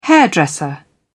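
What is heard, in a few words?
"hairdresser", British English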